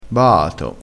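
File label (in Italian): "baato", dialettale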